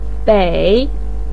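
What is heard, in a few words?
"pei", Mandarin Chinese third tone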